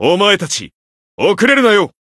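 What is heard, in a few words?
Voice limit break, Japanese; majuou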